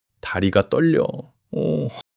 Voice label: fluster

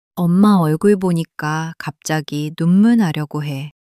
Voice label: sadness

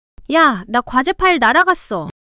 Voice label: fluster

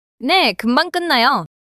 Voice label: neutral

